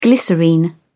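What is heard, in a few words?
"glycerine", English, British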